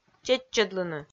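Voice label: "чеччӧдлыны", Komi, female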